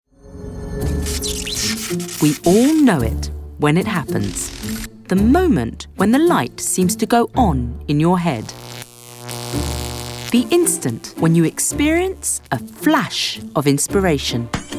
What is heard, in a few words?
Narration